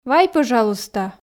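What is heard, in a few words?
"вай пӧжалуйста", Komi, female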